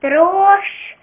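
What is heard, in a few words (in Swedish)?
"brosch", Swedish; ktuberling; tdegames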